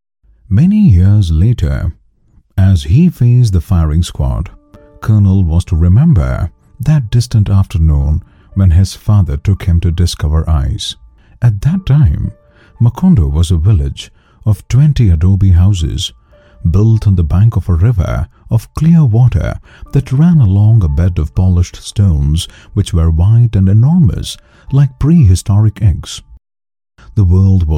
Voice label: Audio Book English